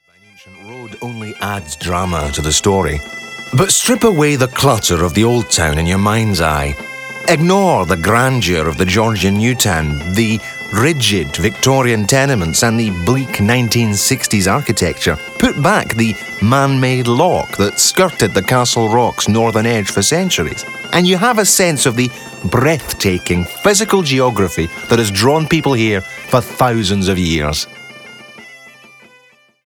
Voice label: Alternative Scottish Informative; Edinburgh